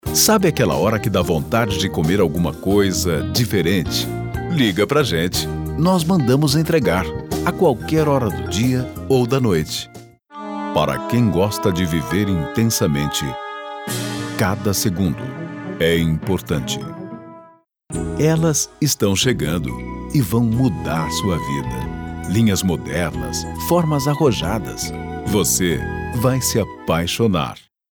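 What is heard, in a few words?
Brazilian commercial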